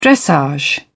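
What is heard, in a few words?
"dressage", British English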